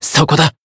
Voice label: Voice attack jp

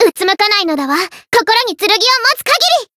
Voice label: variable chant, jp; thillelille

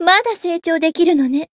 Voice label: growth, jp

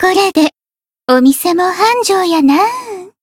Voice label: Voice growth jp; hoozuki

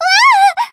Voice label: damaged jp; mayu

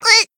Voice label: Voice damaged, jp; maturika

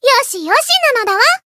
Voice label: healed, jp

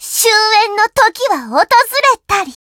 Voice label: Voice win jp

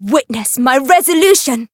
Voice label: variable chant; azami

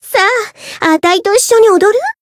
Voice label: Voice encount, jp; harle

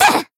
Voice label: attack, jp; tsukiha

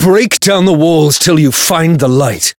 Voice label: variable chant

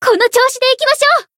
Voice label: growth jp; tsukiha

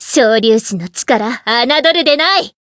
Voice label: Voice variable chant jp; tiramisu

